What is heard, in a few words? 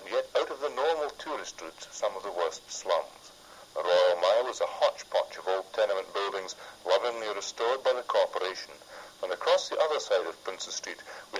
Edinburgh Scottish, english accents; Scotland